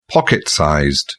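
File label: "pocket-sized", British English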